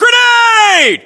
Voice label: inform incoming grenade, US